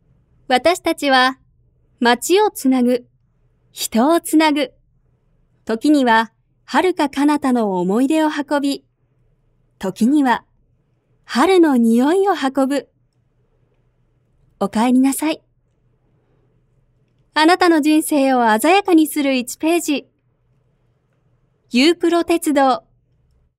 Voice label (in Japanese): 鉄道 ナレーション